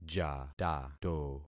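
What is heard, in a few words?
"jatato", concatenated syllables; Affixing experiment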